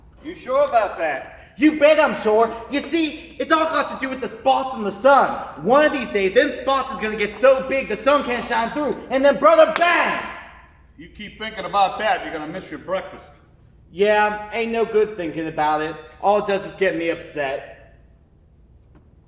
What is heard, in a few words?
STAGE BOUNDARY MICS PROCESSED